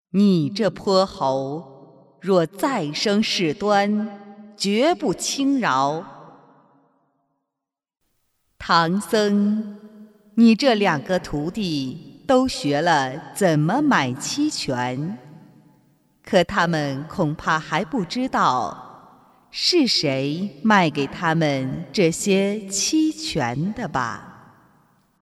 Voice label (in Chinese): 女 模仿; 西游记观音菩萨